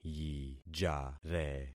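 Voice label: "yijare", concatenated syllables; Affixing experiment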